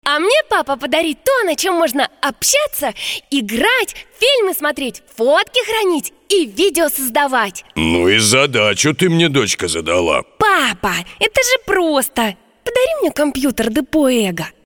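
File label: Russian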